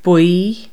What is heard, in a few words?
Irish "yellow"